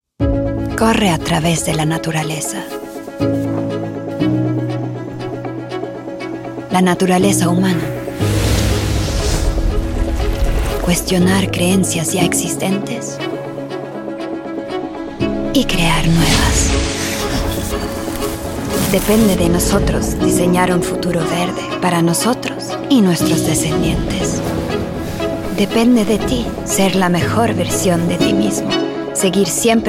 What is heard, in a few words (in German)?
Spanisch Werbung; Kapsch